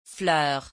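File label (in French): "fleur", French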